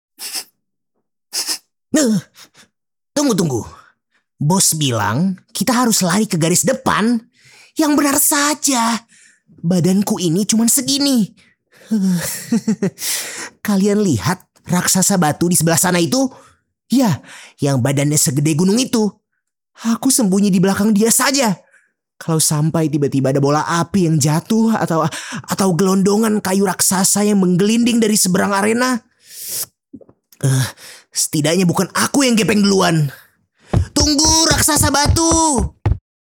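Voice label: character Indonesian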